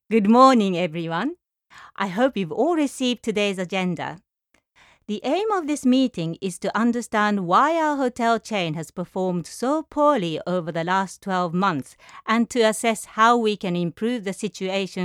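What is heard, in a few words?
Japanese English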